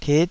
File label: "tit5", taiwanese Syllables